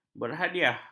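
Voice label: "berhadiah", Malay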